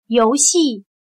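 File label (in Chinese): "游戏", Chinese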